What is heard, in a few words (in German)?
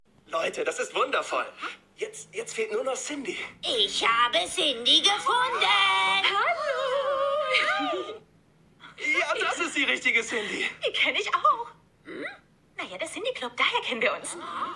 Synchron